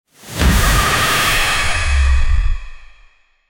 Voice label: make a voice shouting cav, caveman